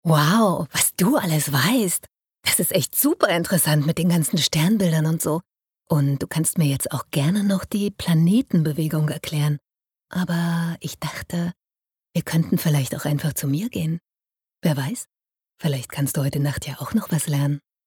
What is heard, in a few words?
sexy